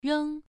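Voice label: "reng" Mandarin Chinese, first tone, pronunciation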